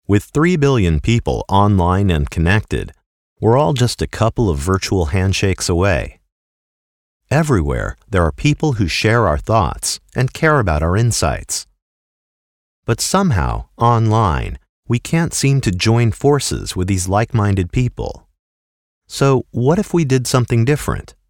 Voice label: California Mellow Style